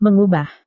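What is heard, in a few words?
"mengubah", Indonesian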